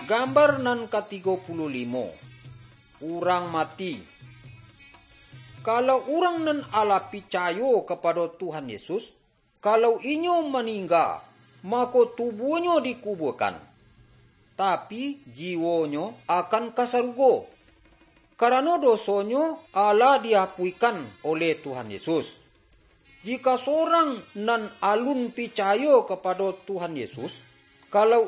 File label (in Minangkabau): good news minangkabau